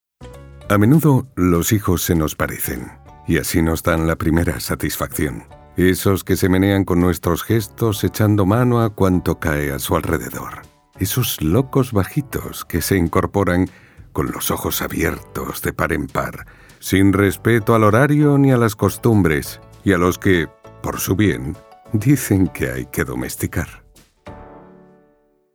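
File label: spanish radio imaging, Male; professional Spanish voice actor since 1990; Dubbing actor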